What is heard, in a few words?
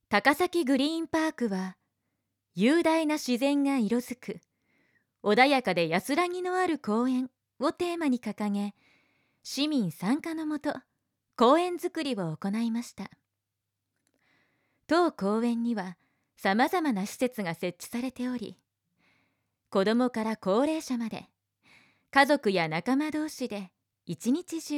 narration